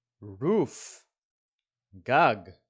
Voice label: "roof" – "גג"

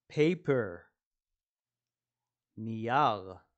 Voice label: "paper" – "נייר"

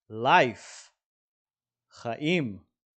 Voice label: "life" – "חיים"

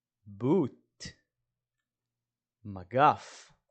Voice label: "boot" – "מגף"

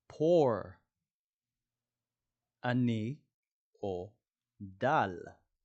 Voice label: "poor" – "עני דל"

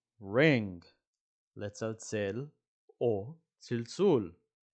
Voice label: "ring" – "לצלצל צלצול"

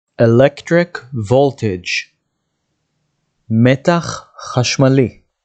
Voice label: "electric voltage" – "מתח חשמלי", dictionary

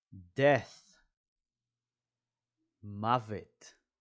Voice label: "death" – "מוות"

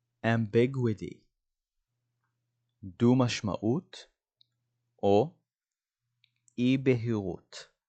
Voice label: "ambiguity" "דו משמעות אי בהירות", dictionary

